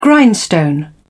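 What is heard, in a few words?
"grindstone", British English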